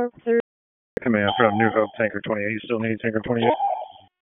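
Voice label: Public Safety Tactical, p25; wake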